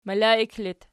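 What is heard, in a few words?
"melaik" locative, Archi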